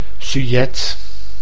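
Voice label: "sujet", Dutch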